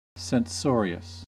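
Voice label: "censorious", US English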